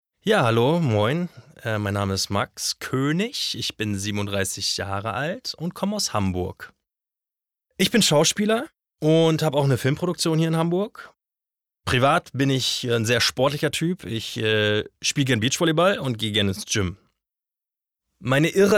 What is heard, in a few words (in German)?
Vorstellung, German; Norddeutsch